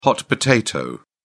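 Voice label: "hot potato", British English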